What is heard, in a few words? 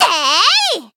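attack, Japanese; may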